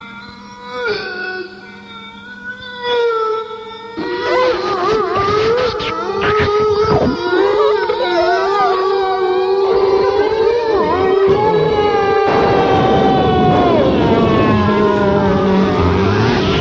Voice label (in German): kassettenstimmen; 50er